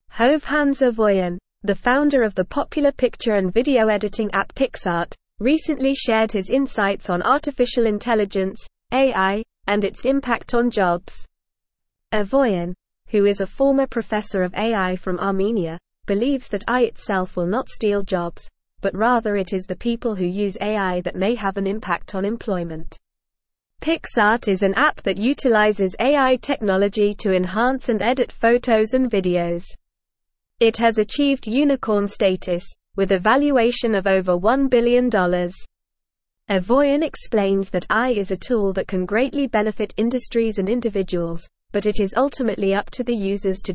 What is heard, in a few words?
English Advanced NORMAL Reading; AI A Tool to Enhance Human Capabilities